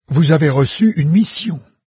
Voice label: new quest, fr, NARRATOR; Fate